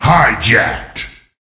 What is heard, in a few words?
Voices Announcer Reward